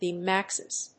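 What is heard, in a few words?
"beam axis", English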